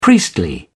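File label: "priestly", British English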